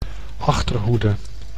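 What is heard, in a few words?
"achterhoede", Dutch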